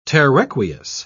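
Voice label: "terraqueous", English, British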